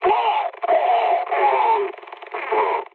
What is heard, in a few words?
damaged pilot, mood med, speech; War Thunder